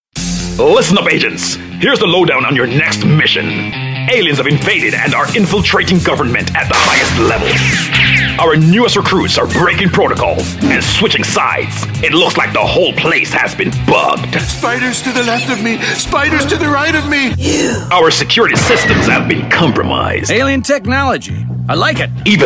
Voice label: Character Cartoon